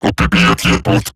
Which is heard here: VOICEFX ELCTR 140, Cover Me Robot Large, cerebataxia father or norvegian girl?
Cover Me Robot Large